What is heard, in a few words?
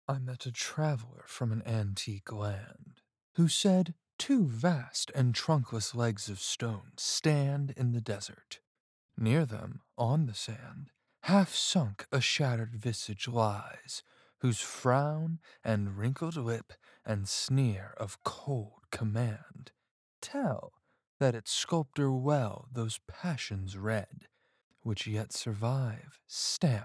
Narration Sample